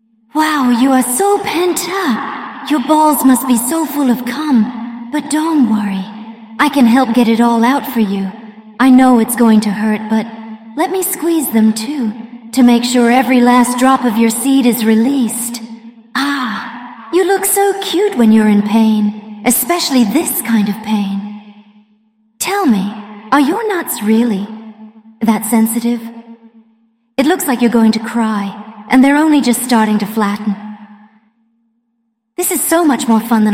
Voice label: Voice English